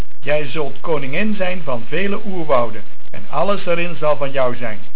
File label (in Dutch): "Koningin", Dutch